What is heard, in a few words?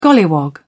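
"golliwog", British English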